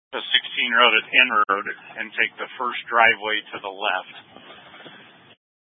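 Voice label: Incident Tactical 2, Scanner audio recording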